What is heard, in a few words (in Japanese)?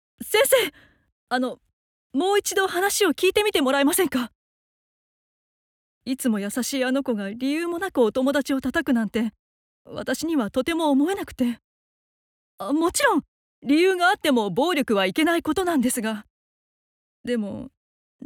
40代女性